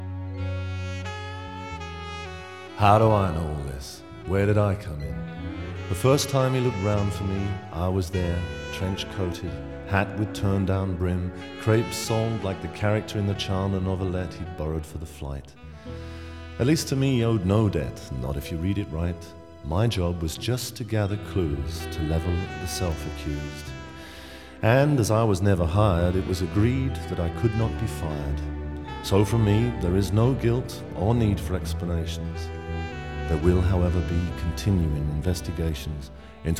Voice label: narration; continuing investigations

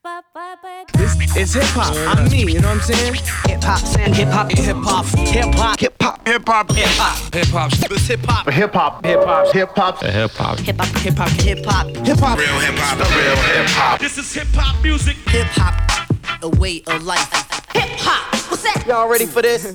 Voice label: hip hop skit

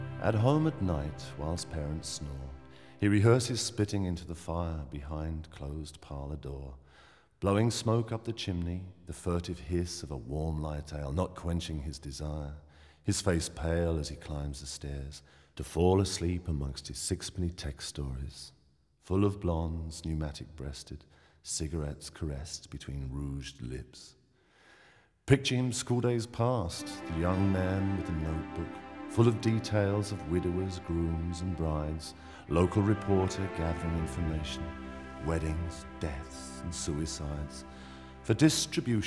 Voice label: narration

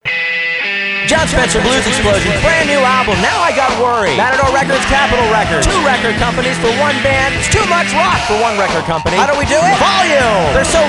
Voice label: radio ad

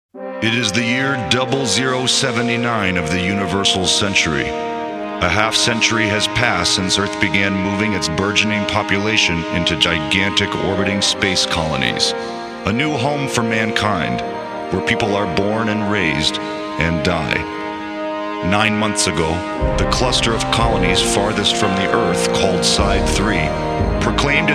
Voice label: tv series opening narration

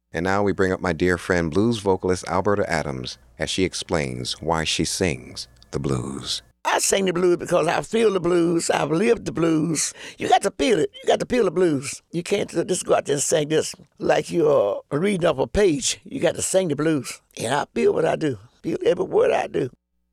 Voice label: narration blues vocalist; blues vocalist alberta adams why she sings the blues

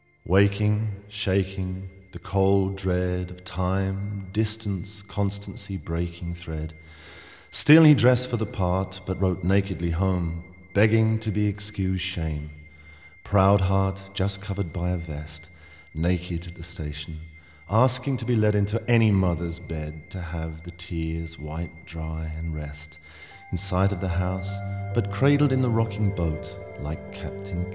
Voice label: narration

